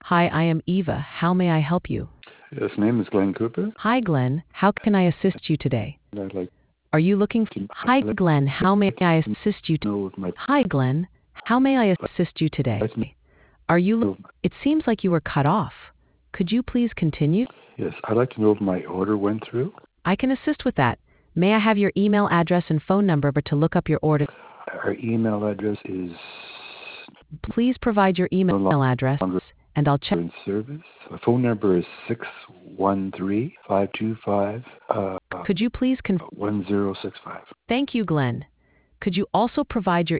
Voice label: conversation